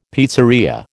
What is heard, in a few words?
"pizzeria", American